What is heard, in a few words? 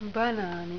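"banaani", Finnish pronunciation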